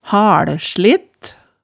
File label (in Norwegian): "halslet", hemsedalsmaalet